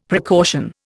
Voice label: "precaution", Indian; Dictionary